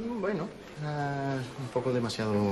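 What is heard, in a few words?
Voice Acting es Male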